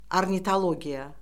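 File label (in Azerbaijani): "ornitologiya", Azerbaijani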